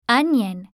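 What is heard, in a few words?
"onion", English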